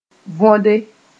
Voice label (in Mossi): "võde", moore